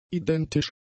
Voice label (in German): "identisch", German; adjectives